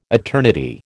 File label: "eternity", American